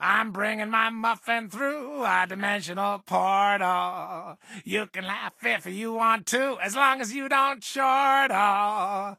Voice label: English Voice

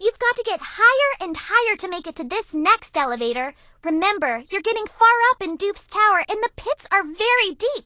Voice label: English Voice

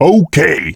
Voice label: "Ok", Male; blastmorph pinball; xbox series xs

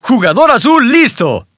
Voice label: Spanish - dialogue, ready

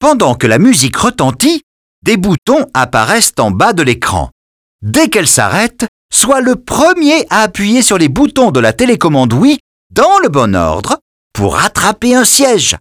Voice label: French - musical chairs vo; dialogue; birthday party bash; wii

